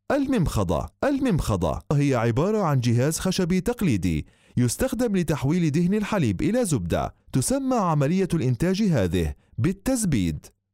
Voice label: arabic